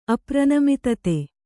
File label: "ಅಪ್ರನಮಿತತೆ", Kannada; apranamitate; noun; impartiality; unbiasedness